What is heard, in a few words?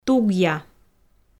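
"тугъя", Komi, female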